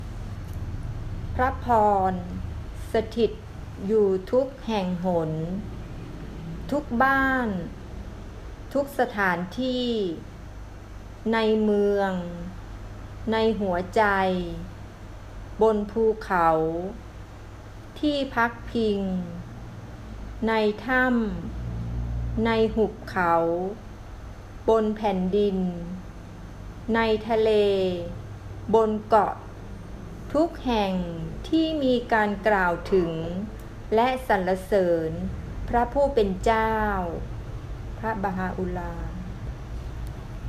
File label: prayer thai; blessed is the spot